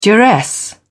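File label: "duress", British English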